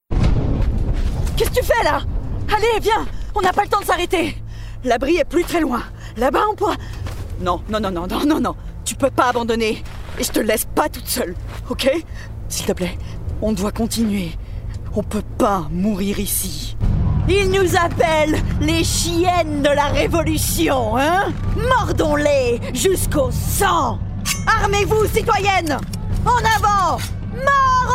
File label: Gaming French